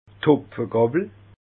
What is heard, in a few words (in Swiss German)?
"hopfegawwel", alsace